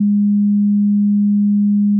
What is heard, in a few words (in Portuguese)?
F0 mulheres